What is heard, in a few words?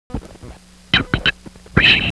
Bird (fricatives)